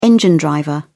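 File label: "engine driver", British English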